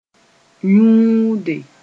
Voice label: "yũude", moore

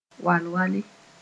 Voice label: "wal-wale", Mooré

